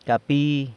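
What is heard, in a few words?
"capi", shrine, San Lucas Quiaviní Zapotec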